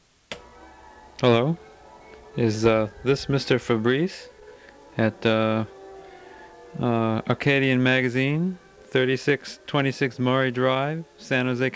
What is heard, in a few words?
tape introduction; Airbrush And Sandblast; spoken introduction